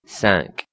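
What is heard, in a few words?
"cinq" French, 5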